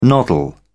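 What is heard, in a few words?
"noddle", British English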